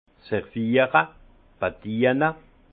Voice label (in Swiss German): "serviere", alsace; vin; servir